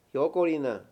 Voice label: absorber, Mayo

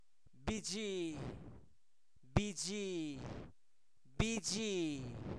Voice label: Zapoteco Albarradas, santo domingo albarradas; comestibles; pitaya; INALI

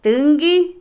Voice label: Otomí, mujer; Acciones; extender, esparcir; INALI

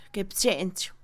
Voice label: rama, Mixe, Mujer; INALI

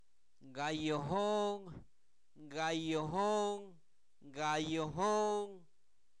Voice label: Zapoteco, santo domingo albarradas; INALI; numeros; sesenta

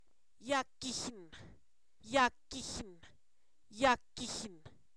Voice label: baúl, Zapoteco, san miguel albarradas; objetos inanimados

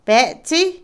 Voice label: "tener", Otomí, mujer; Vocabulary; INALI

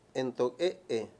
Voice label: "tampoco", Mayo; Palabras con distintas funciones

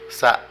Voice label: Música, Zapoteco de Petapa; Moderno; Vocabulary

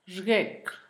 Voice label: cabeza, Zapoteco, Asunción Ocotlan; Cuerpo; INALI; Haspelmath

